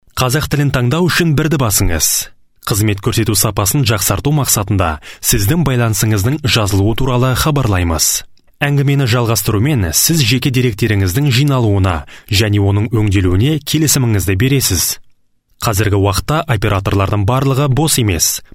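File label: male Kazakh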